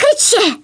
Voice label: TAKE COVER, Speech, Polish; Worms Armageddon

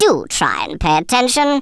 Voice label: "oi nutter", Speech; Worms Armageddon

